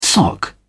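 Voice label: "sock", British English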